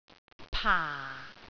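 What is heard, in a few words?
"paa4", Cantonese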